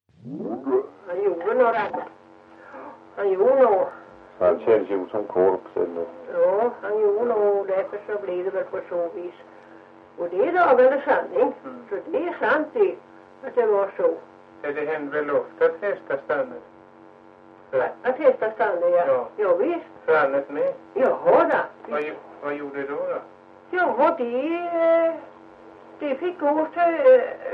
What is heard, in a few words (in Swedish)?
Ventlinge, dialekter; Folkminnen